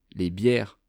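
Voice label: "les bieres", French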